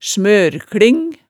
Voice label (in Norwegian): "smørlking", hemsedalsmaalet